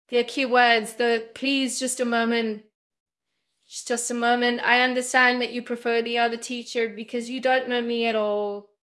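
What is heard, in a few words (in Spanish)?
Voice Acting es F, Spanish, female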